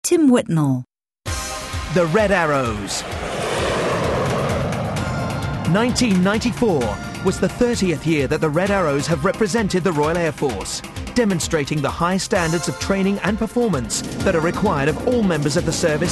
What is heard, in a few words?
narration